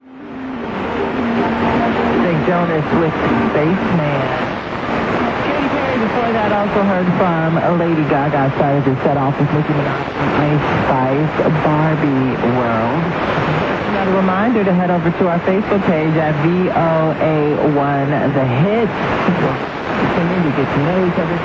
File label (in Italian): 9775 kHz inglese; VOA Botswana; Degen DE 1103; filo 5m indoor; St. Ursen